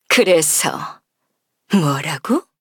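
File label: Victory, Korean; Sigrid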